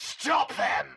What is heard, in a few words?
Scientist Caster Chokepoint Line; NPC; Heist Cultist Chokepoint